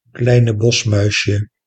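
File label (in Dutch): "kleine bosmuisje", Dutch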